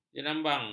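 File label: "jerambang", Malay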